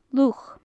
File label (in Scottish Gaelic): "luch", Gaelic; Faclan Nàdair